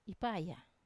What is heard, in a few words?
"ipaya", Wamesa; I am strong